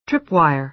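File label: "tripwire", American English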